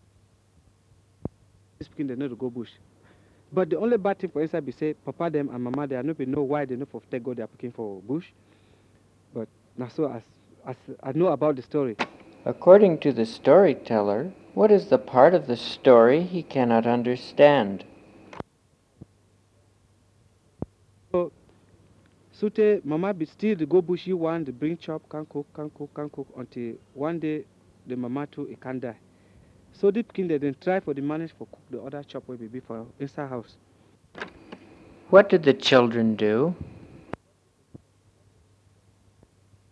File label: Cameroonian English Pidgin